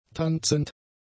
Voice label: "tanzend", German; adjectives

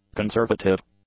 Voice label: "conservative", English; adjectives

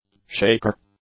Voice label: "shaker", English; nouns

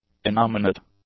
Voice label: "innominate", English; adjectives

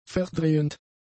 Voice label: "verdrehend", German; adjectives

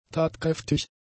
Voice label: "tatkräftig", German; adjectives

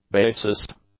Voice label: "bassist", English; nouns

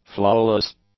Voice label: "flawless", english; adjectives

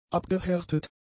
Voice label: "abgehärtet", German; adjectives

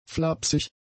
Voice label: "flapsig", German; adjectives

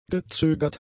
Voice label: "gezögert", German; adjectives

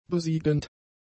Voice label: "besiegend", german; adjectives

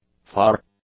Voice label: "far", english; adjectives

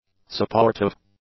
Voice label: "supportive", English; adjectives

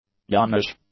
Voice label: "youngish", english; adjectives

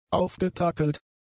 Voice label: "aufgetakelt", German; adjectives

